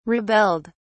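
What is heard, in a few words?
"rebelled", British English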